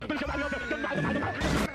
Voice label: gibberish explosion